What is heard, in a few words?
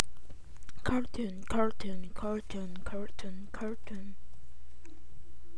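"cartoon", spoken word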